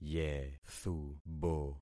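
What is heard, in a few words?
"yethubo", concatenated syllables; Affixing experiment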